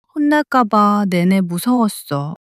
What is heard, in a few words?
sadness